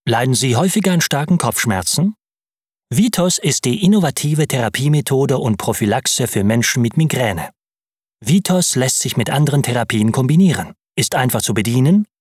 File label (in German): image erklaerfilm